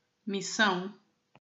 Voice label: "missão", portuguese

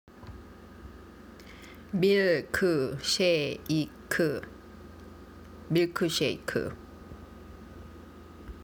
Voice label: "milk shake", Korean

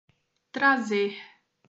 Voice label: "trazer", Portuguese

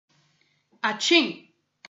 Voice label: "atchim", portuguese

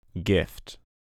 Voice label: "Gift" English

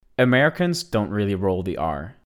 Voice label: American Normal r

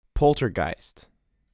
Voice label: "Poltergeist" US

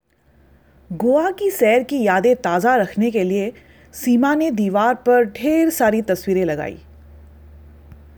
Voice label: Goa, hindi

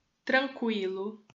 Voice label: "tranquilo", portuguese

